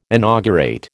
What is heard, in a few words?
"inaugurate", American